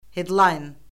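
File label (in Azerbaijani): "hedlayn", Azerbaijani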